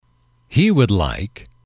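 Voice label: "he would like", English, male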